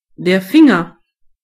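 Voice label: finger, German voices words; gcompris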